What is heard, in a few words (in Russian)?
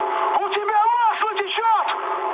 damaged oil, mood high, speech ru; War Thunder